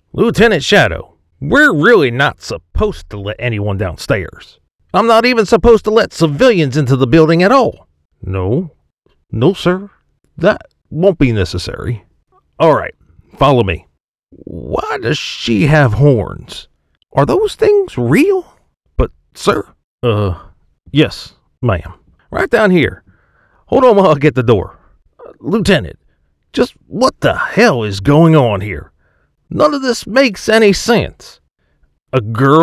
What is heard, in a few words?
Military Guard Inquisitive Appalachian drawl